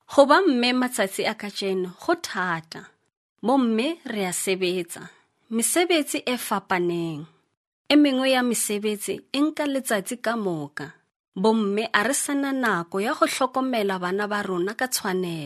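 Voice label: Sotho Northern, South Africa, female; sotho northern voice over talent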